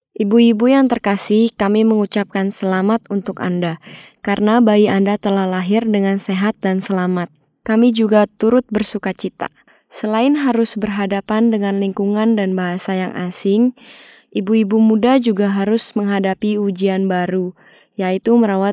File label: Indonesian General